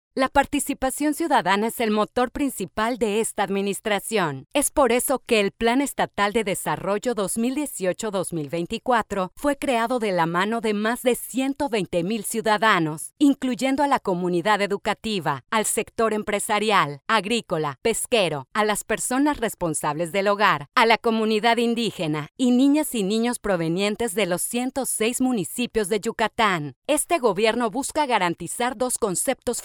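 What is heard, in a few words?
Spanish Latin America female narrative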